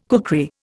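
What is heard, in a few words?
"kukri", Indian English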